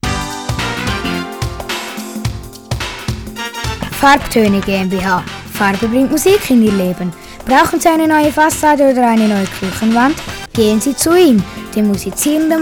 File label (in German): Werbung; farbtoene GMBH